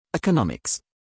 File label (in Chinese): 英籍外師念economics